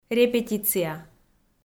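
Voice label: "репетиция", Komi, female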